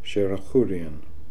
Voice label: "Sherakhoorian", pronounciations; Fourth Way; Beelzebub